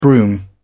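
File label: "brougham", British English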